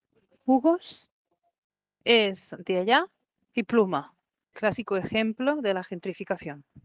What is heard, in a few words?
Voice message